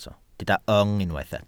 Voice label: "yng", Welsh